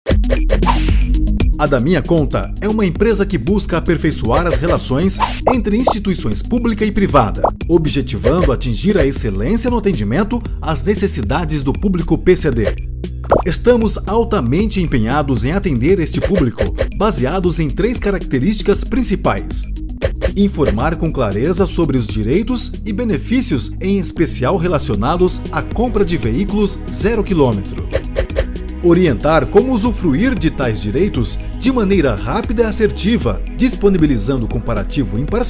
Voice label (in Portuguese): Institucional, Locutor; Da Minha Conta Services